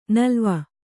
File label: "ನಲಾವು", Kannada